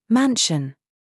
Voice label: DRESS TRAP, minimal pairs